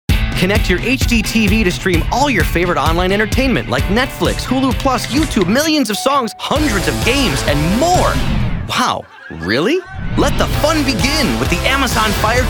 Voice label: Commercials